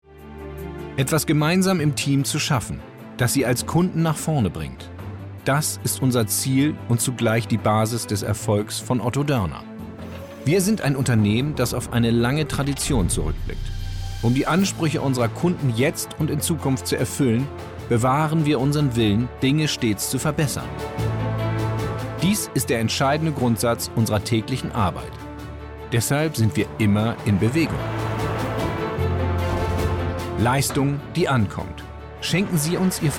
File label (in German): auszug imagefilm